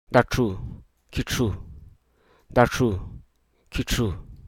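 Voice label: Hruso Aka; culprit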